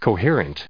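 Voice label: "coherently", US English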